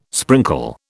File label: "sprinkle", British